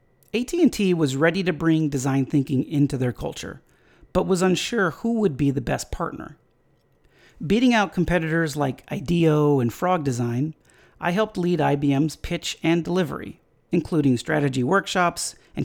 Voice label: voicetrack